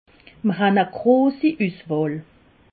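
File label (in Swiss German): "uswahl", Alsatian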